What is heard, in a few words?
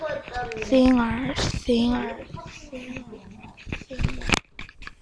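"singer", spoken word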